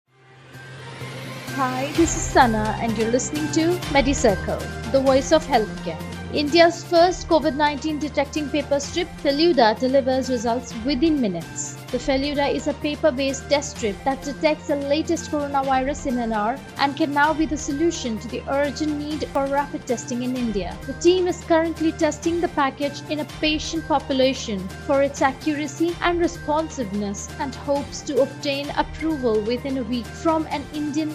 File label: english news